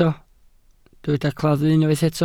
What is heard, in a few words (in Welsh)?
"claddu", Welsh